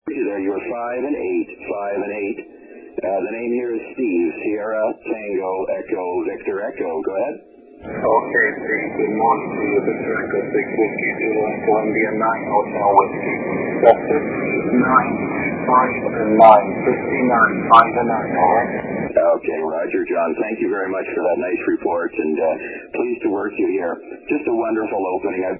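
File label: 80m short path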